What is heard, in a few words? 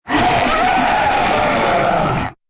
taunt, she; combat; creatures; ryuchi; CosmicRage